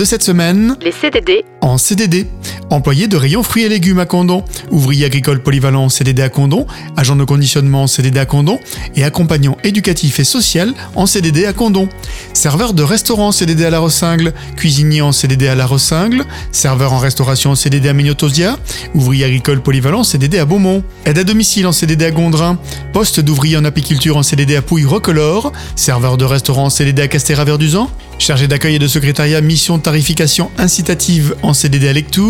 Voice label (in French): PUB FRANCE TRAVAIL CDI